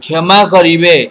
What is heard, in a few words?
excuse me, odia